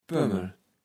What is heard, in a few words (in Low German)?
"Pömmel", Platt